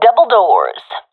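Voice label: loc doubledoors, professional female; vo; agents; Source Model Packs; Counter Strike Model Pack; CS2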